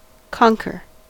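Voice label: "conquer", US English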